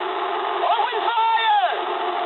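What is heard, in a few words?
English attack position mood low; War Thunder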